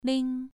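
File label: "ling", tone 2, pronunciation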